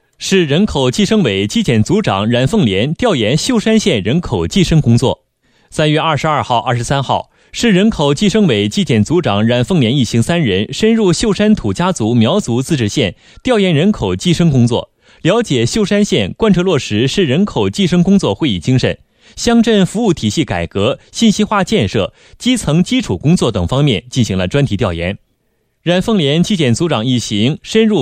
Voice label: 新闻, 国语男声配音; 北方经济型; 秀山计生新闻

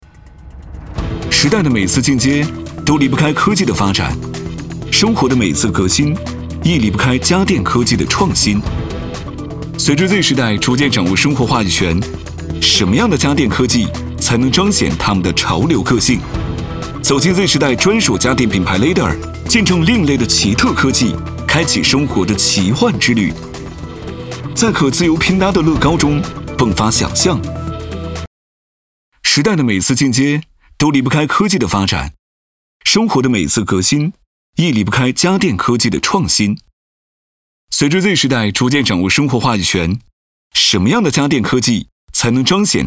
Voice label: 男 现代时尚品宣 家电; leader家电

